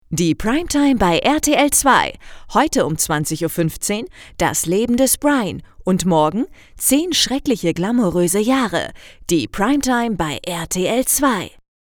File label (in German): Werbespot; rtl2